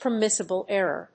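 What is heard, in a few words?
"permissible error", English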